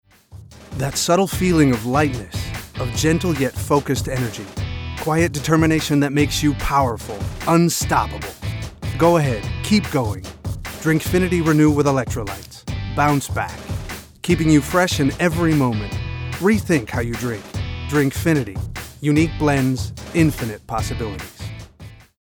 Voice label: male american english drink comercial

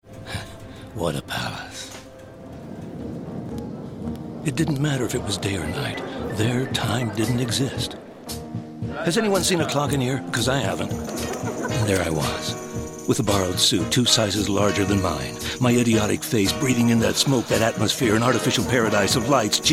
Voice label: male american english shortfilm; ideasonora

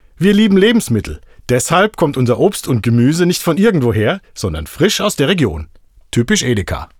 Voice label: male german comercial

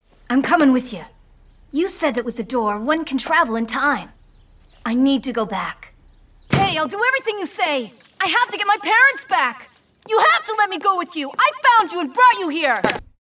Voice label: female american english kids comercial; ideasonora

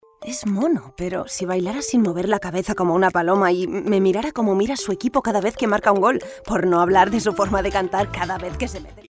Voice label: female spanish travel comercial; ideasonora

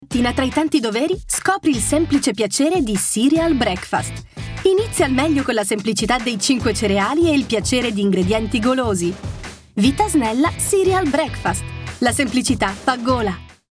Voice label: female italian comercial; ideasonora

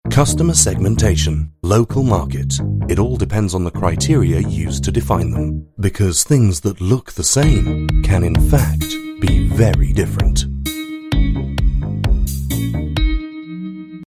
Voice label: male british english market comercial

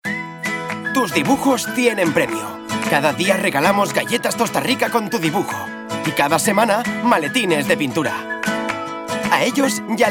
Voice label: male spanish food comercial; ideasonora

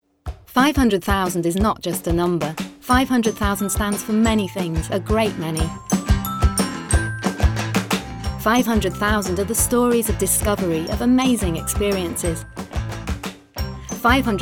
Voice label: female british english country comercial; ideasonora

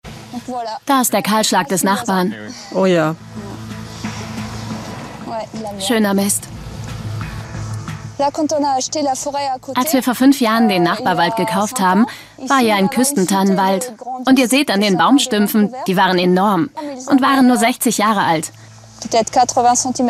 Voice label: female german commercial; ideasonora

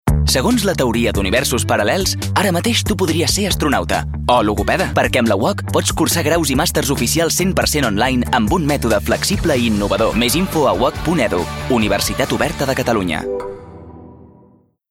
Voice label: male catalan college comercial; ideasonora

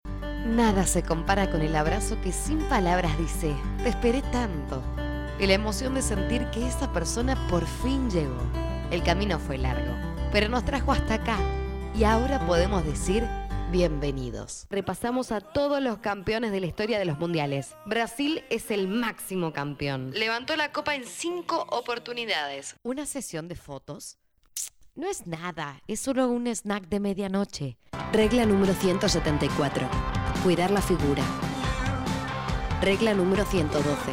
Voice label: female Argentinian; ideasonora

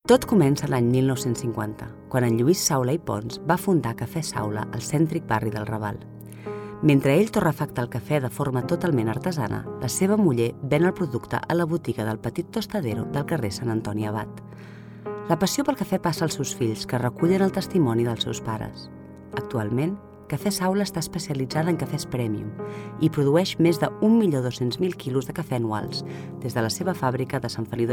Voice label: female catalan coffee comercial

